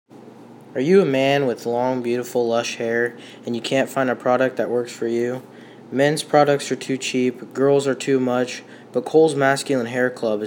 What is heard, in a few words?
speech radio commercial